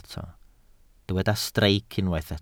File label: "streic", welsh